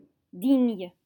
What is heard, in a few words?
"digne", French